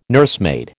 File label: "nursemaid", American